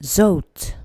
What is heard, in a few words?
"zout", english